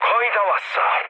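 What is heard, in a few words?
Korean near waypoint mood low; War Thunder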